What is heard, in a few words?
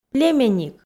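"племенник", Komi, female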